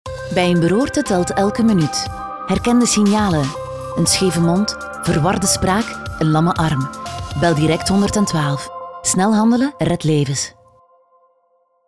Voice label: flemish medical narration; flemish voice actors